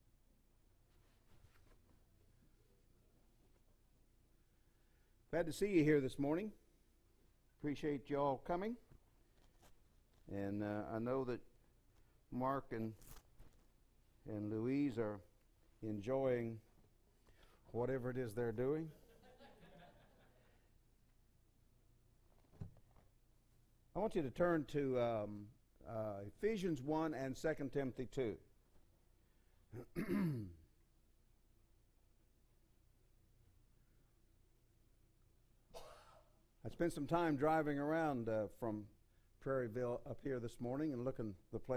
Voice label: Preaching